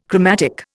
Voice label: "chromatic", Indian

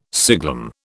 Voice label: "siglum", British

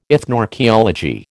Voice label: "ethnoarchaeology", American

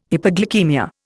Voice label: "hypoglycaemia", Indian

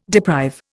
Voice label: "deprive", Indian

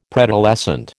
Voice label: "preadolescent", American

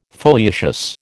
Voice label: "foliaceous", American

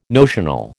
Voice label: "notional", American

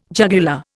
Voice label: "jugular", Indian

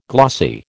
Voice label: "glossy", American

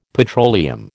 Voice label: "petroleum", American

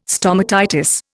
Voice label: "stomatitis", Indian

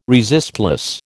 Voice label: "resistless", American

